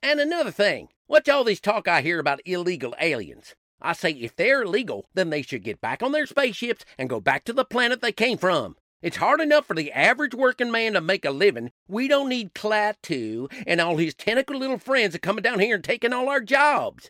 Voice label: Southern; Voice, Acting & Singing samples